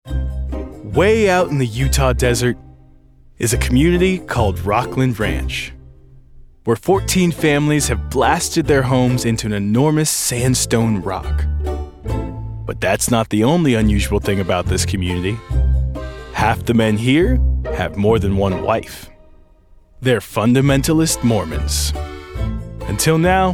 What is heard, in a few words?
Documentary